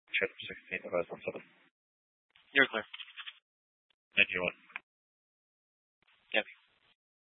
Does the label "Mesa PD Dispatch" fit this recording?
Yes